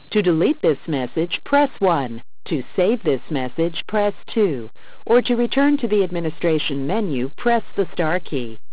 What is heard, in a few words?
Message Menu, voicemail prompts